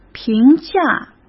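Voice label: "评价", Mandarin Chinese; hsk; chinese-flashcards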